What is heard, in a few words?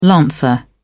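"lancer", British English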